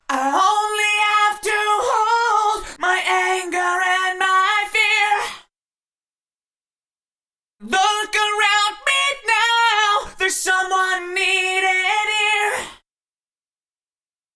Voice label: Eventide H910 Vox Pitch Shift Plus 3 cents